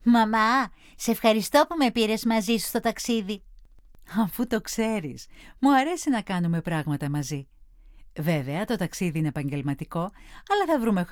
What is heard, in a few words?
dialog